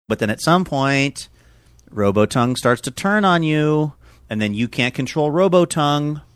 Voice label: saying but then at some point robot tongue singsongy